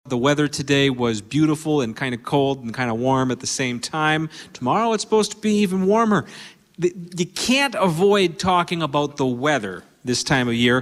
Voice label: MONOLOGUE